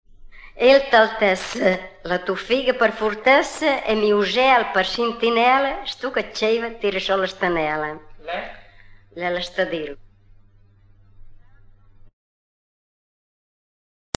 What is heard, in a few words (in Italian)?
indovinello, romagna